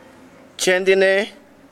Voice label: "hijo", saliba